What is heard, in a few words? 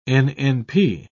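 "nnp", British English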